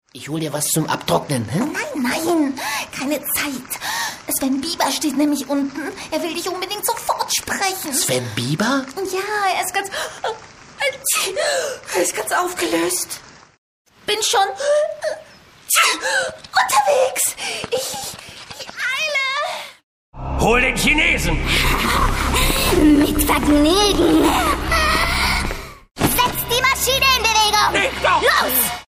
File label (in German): Schauspiel Aufgeregt